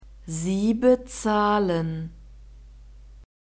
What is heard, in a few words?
"Sie bezahlen", German